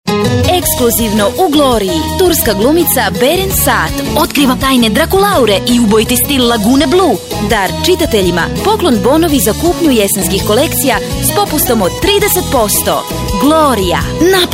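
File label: croatian female commercial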